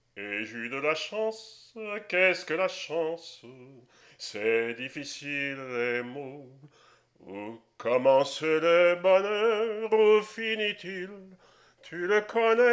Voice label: Narration Vocal, Poesies; Petit cailloux